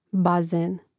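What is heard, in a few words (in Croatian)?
"bazen", Hrvatski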